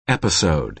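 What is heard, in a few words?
"episode", American English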